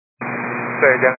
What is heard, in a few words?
122 725MHz, scanner radio